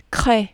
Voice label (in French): "craie", French